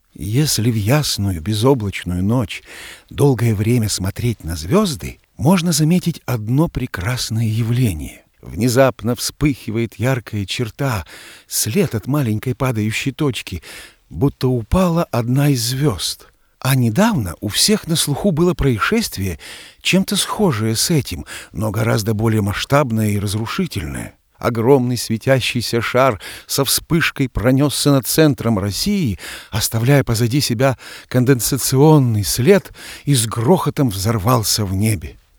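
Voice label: nauchyi rasskaz Russian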